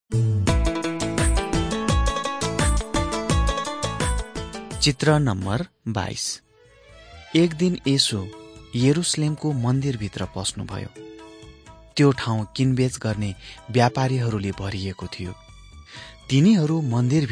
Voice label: victory through god nepali